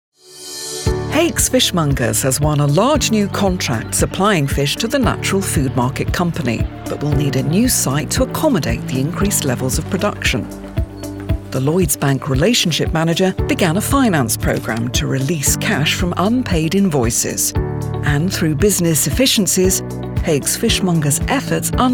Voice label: Corporate Reel